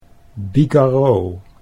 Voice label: "bigarreaux", Dutch